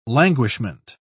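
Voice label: "languishment", British English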